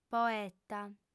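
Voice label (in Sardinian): "poeta", female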